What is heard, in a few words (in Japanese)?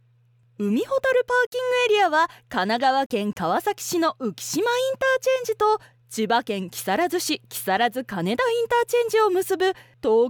ナレーションサンプル 海ほたるパーキングエリア